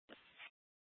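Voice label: Police Department Dispatch, scanner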